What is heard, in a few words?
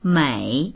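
"mei3", Chinese; 每; ひとつひとつ、～ごとに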